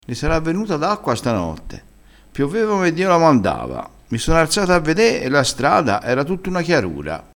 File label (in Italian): "piove ome", crespinese